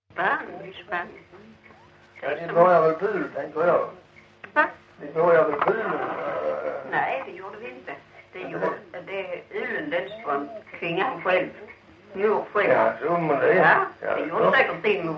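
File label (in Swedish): Lilla Harrie Skåne, Svenska sagor dialekter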